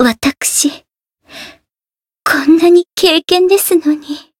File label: Voice win barely jp; rosetta